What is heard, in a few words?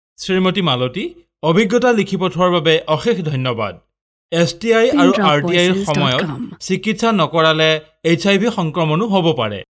Assamese